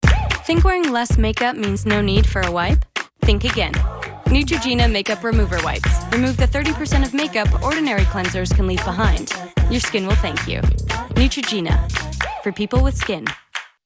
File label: Voiceover, Commercial, Women; New York; Stewart Talent